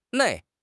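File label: "nei4", Mandarin Chinese; HSK; HSK4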